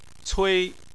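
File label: "cui" first tone, Chinese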